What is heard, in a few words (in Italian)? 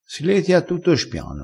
"letia", crespinese